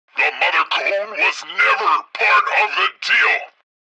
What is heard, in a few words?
tutorial chopper; midwave; Blind Drive